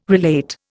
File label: "relate", Indian